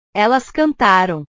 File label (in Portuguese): "elas cantaram", portugues; portugues/verbos